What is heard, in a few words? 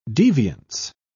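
"deviance", British English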